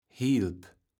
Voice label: "hilp", kaevels platt

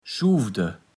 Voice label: "schuvde", Platt

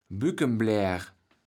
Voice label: "Bükemblär", kaevels platt

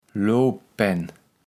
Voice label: "Looppänn", kaevels platt

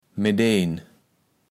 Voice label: "meddeen", kaevels platt

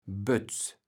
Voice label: "Bötz", kaevels platt

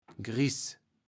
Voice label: "gris", kaevels platt

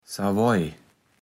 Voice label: "Savoij", Platt

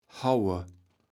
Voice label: "hauwe", kaevels platt

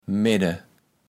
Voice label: "Medde", kaevels platt

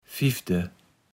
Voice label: "fifde", kaevels platt

